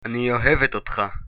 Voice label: I love you, Hebrew